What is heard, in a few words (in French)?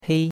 "hei1", chinois; Cours-de-Chinois